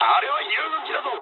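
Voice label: player friendly fire mood high, speech japanese; War Thunder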